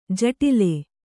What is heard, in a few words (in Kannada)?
"ಜಟಿಲ", Kannada